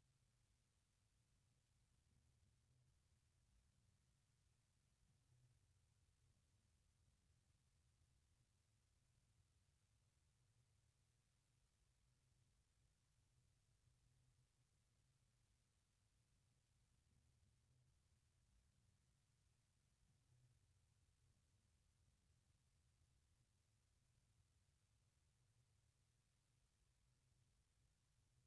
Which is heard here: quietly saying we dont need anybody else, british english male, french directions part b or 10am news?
french directions part b